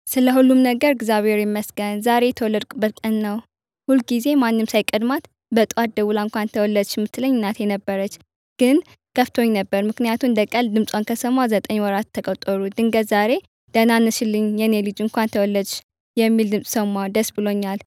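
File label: Amharic, female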